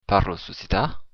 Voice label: do you speak, occitan; Parlas occitan?